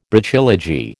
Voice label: "Brachylogy", American

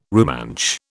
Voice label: "Rumansh", British

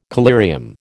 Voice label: "collyrium", American

